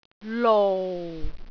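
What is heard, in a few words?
"lou4", Cantonese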